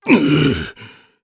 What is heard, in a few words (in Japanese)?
Damage, jp; Ricardo; Kings Raid